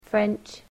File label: "French", English